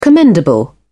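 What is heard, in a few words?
"commendable", English, British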